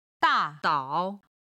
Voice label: "da4 dao3", Chinese